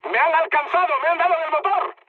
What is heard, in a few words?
damaged engine, mood high, speech; War Thunder